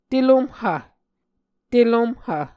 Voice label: "telomhv", Muscogee